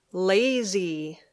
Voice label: "lazy", English